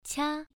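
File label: "qia", tone 1, pronunciation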